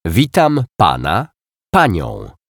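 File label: "Witam pana pania", Polish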